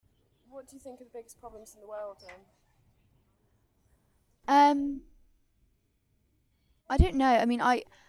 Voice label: Hampshire Female 20s

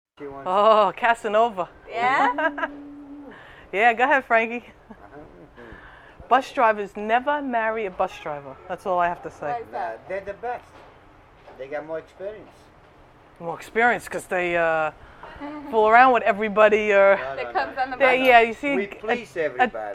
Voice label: Brooklyn NY White Female 40s 50s

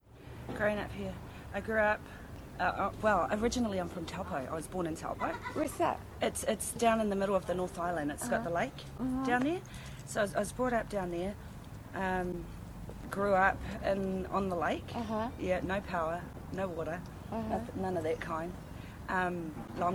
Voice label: New Zealand Maori Female 30

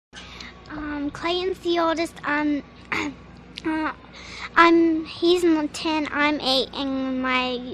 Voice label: Idaho White Female Age 8

